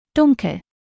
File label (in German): dunkel, niemieckim; kolory w jezyku niemieckim